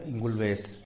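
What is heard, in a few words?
"ingulbes" opossum, Zapotec; animals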